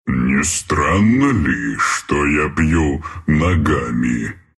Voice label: level up, ru; Treant; dota2

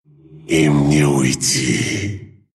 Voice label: attack, ru; dota2

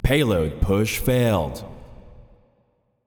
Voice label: Announcer Match Payload Failed; Feyrazzle; paladins

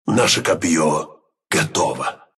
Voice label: attack, ru

